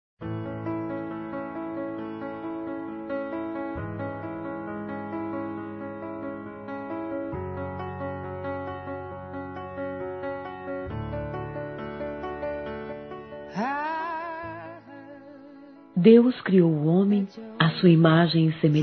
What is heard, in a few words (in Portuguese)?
Aniversário Pai F; Telemensagens 2017; Aniversários; Aniv Familiar